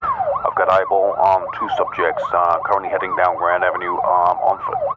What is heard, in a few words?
emergency police radio male officer in car sirens eyeball two subejects heading grand aven; grand avenue